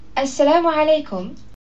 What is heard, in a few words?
arabic hello